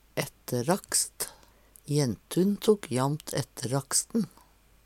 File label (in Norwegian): "etterakst", Numedalsmål